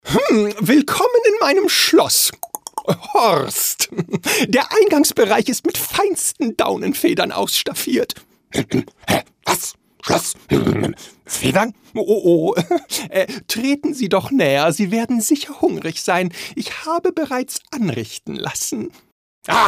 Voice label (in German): Sprachprobe Cartoon; Sprecher; Futter